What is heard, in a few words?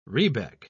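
"rebeck", British English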